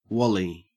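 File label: "wally", Australian English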